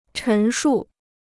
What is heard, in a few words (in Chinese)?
"陈述", Chinese